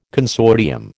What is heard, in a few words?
"consortium", American